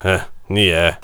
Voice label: warrior conversation emotion disagree